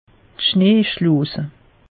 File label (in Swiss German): "schnee", alsace; meteo